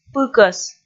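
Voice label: "пыкӧс", Komi, female; kpv audio dict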